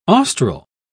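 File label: "austral", British English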